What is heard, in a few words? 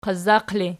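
"qazzaq" ergative, Archi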